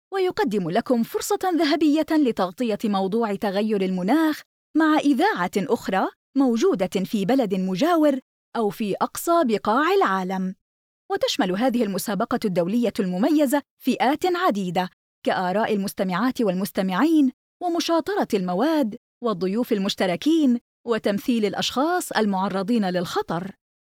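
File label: Arabic, Saudi Arabia, female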